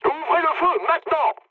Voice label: attack position mood low, speech fr; War Thunder